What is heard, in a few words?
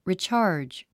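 "recharge", 英単語 発音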